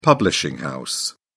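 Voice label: "publishing house", British English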